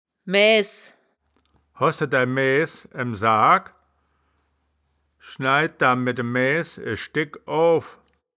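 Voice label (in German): "maeaehs", Wittlicher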